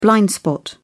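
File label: "blind spot", British English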